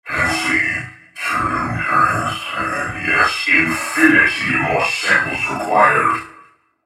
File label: taunt, en US; Vel'Koz